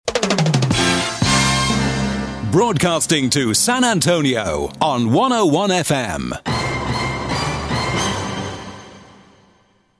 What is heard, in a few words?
Male; CastingOn101FM; Rad Sunshine